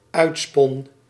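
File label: "uitspon", Dutch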